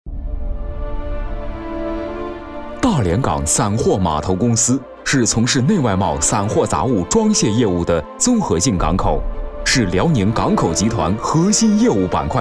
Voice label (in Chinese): 专题 宣传片 港口类; 大连港